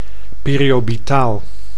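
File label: "periobitaal", Dutch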